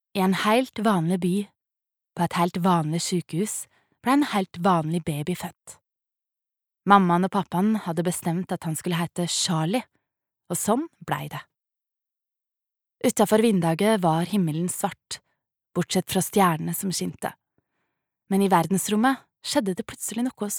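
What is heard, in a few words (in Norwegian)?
Norwegian barnebok dialekt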